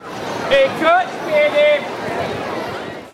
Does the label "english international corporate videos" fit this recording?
No